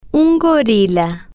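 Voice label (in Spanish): un gorila, Spanish, female, slow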